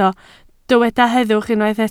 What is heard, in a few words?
"heddwch", Welsh